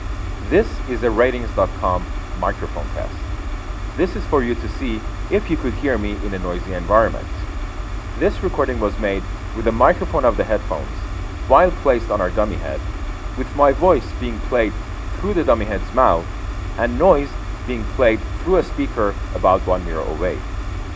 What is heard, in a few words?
in-line noise